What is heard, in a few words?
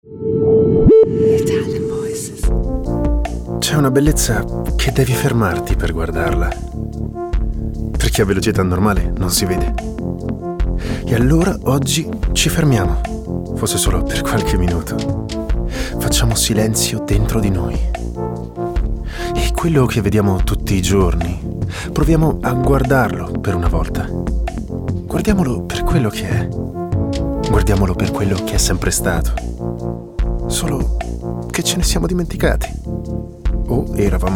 ITALIAN VOICES, male voices; Naked Reel